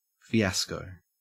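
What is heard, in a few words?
"fiasco", Australian English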